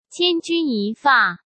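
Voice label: "千钧一发", Mandarin Chinese; chinese flashcards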